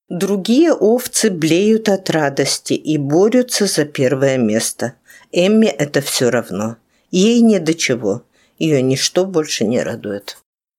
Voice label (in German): russisch